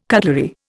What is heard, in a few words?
"cutlery", Indian